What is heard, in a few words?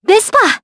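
Vox Japanese; Leo; Vespa; kingsraid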